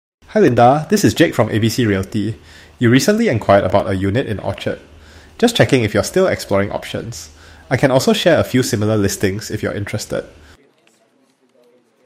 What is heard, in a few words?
ai lead qualification call singapore; ai call agent